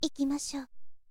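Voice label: girl, "ikimasyo"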